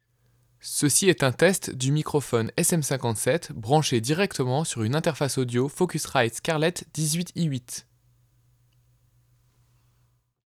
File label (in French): voix sans fethead